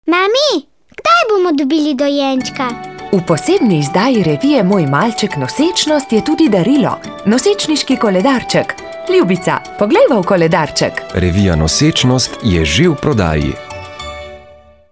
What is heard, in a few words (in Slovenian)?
radijski spot nosecnost